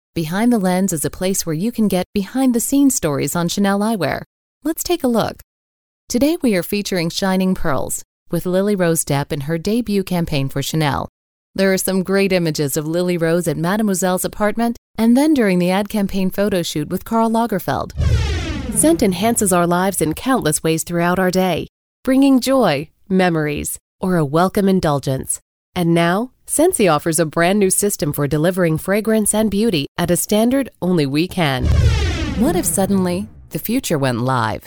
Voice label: Web Female